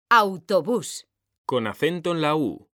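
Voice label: "AUTOBÚS", Spanish, female; mekanta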